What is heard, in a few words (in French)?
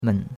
"men3", chinois; Cours-de-Chinois